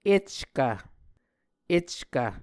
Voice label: "eckv", muscogee